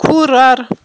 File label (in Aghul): "курар", aghul